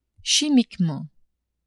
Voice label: "chimiquement", French; adverbs